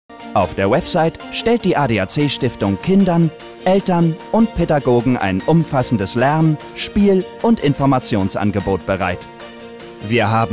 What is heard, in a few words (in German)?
Imagefilm; ADAC Stiftung